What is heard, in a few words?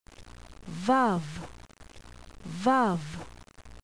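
"vav", Hebrew letter; qabalah; alfabeto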